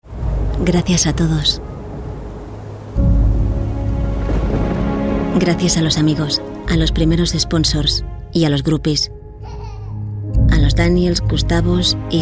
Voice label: female spanish manifesto comercial; team; ideasonora

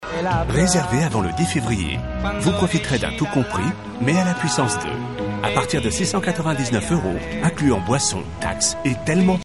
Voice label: male french hotel comercial; ideasonora

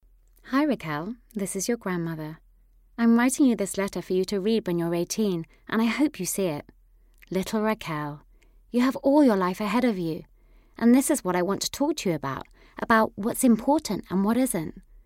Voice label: female british english commercial

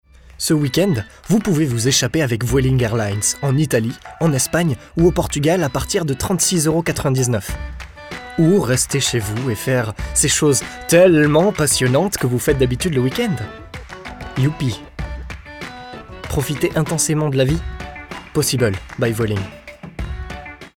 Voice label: male french travel comercial

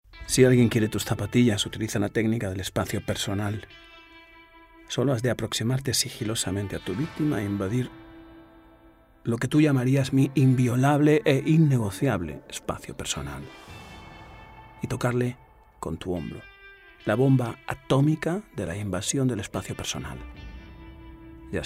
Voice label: male spanish outlet comercial; ideasonora

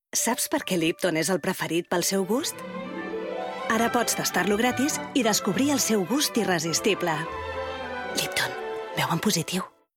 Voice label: female catalan te comercial; ideasonora

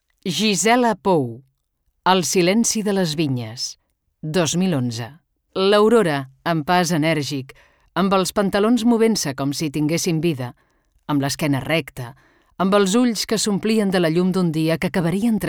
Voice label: female catalan book comercial

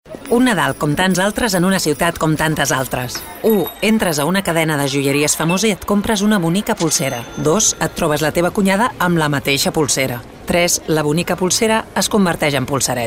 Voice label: female catalan jewelry comercial; ideasonora

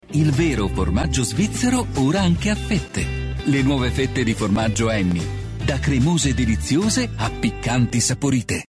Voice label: male italian comercial

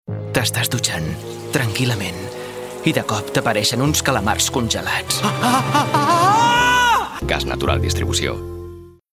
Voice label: male catalan energy comercial; ideasonora

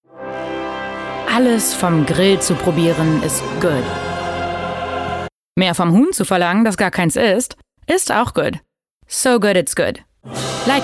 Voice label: female german comercial

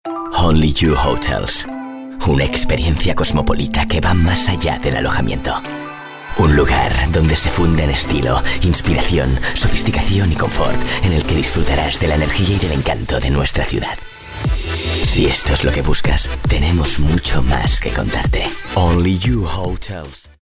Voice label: male spanish hotels comercial; ideasonora

